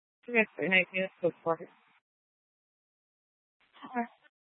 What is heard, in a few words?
Tempe PD, scanner; Rgnl Wireless Co-op (RWC)